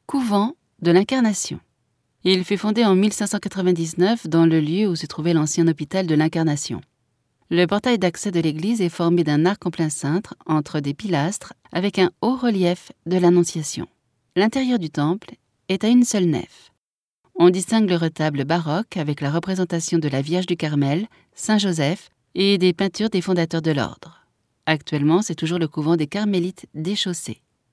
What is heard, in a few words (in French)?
audioguia, frances; audioguia baeza